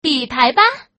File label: Woman